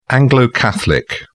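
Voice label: "anglo catholic", British English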